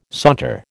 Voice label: "saunter", American

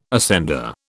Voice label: "ascender", British

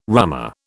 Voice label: "rummer", British

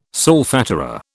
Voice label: "solfatara", British

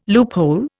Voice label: "loophole", Indian

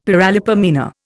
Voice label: "paralipomena", Indian; Dictionary

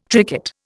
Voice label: "tricot", Indian

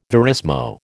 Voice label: "verismo", American

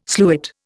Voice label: "sluit", Indian

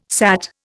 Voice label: "sat", Indian

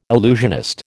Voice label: "illusionist", American

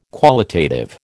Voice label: "qualitative", American

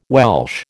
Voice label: "Welsh", American

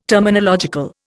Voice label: "terminological", Indian

